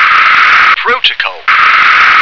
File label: "protocol", English